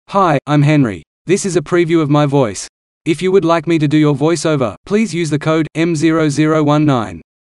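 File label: Australia male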